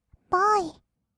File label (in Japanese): "ボーイ", kawaii voice; 音ゲー